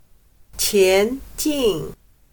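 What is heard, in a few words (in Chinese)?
"前进" Mandarin Chinese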